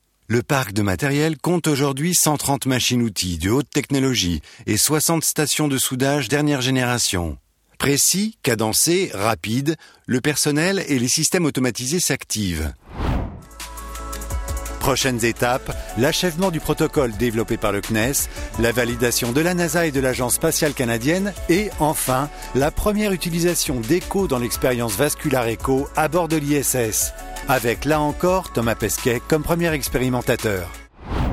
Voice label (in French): Corporate Institutionnel, voix off